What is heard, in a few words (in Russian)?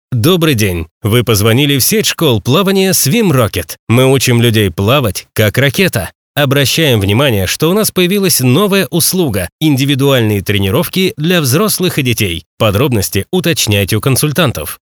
пример автоответчика